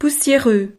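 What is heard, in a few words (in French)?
"poussiereux", French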